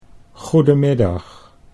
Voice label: "goedemiddag", Dutch

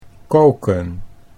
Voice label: "koken" Dutch

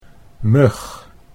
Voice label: "mug", Dutch

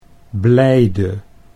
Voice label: "blijde", Dutch

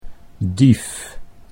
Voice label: "dief", Dutch

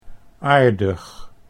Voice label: "aardig", Dutch

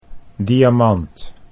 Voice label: "diamant", Dutch; sieraden

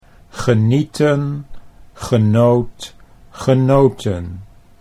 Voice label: "genieten genoot genoten", Dutch

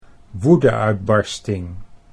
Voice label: "woedeuitbarsting", Dutch

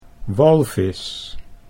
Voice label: "walvis", Dutch

